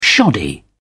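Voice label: "shoddy", British English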